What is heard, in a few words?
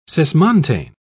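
"cismontane", British English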